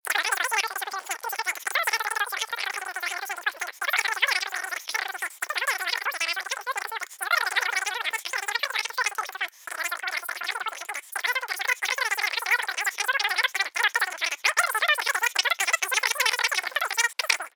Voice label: cartoon telephone voice garble gibberish high pitched nagging